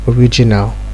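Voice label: "original", Nigerian Pidgin, male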